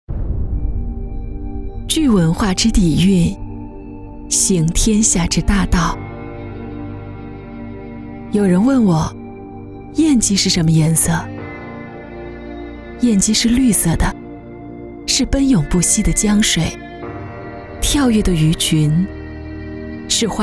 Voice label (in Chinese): 专题 企业